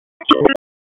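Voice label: Police Spec Ops Tac, radio; Raleigh; wake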